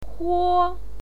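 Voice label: "huo", Mandarin Chinese pinyin, first tone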